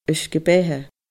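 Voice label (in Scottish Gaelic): "uisge beatha", Scottish Gaelic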